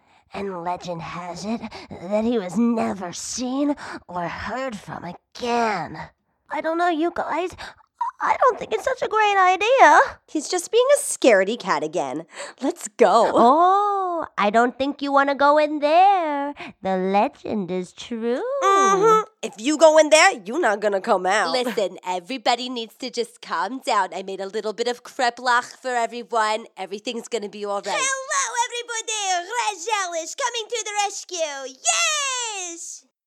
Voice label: Character VO